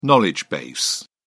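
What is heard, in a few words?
"knowledge base", English, British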